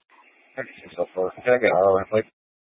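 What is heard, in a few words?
Police Dispatch, Scanner audio recording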